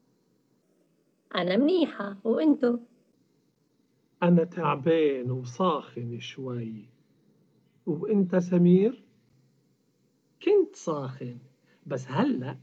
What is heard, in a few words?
dialogue, Arabic MSA; a complete course in spoken written arabic msa